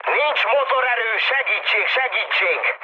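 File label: Hungarian damaged engine mood high, speech; War Thunder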